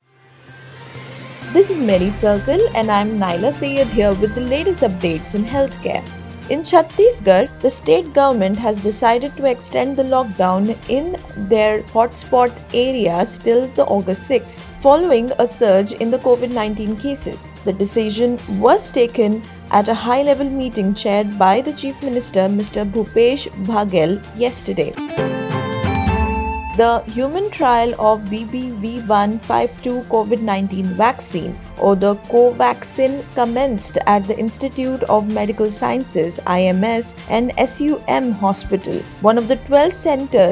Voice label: english news